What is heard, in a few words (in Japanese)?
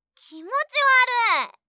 きもちわるい！, ASMR, 相づち・反応系【ネガティブ】